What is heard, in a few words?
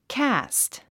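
"caste", English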